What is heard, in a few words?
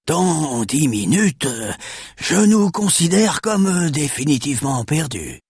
Witch Doctor Pissed; Warcraft 3